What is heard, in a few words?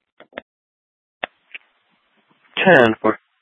Sheriff, scanner